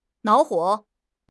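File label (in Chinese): "恼火", Chinese; HSK6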